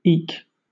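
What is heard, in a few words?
"eke", British English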